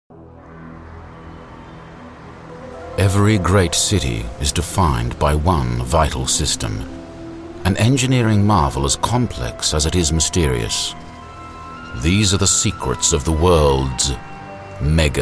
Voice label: neutral